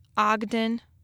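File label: "Ogden", Michigan Pronunciations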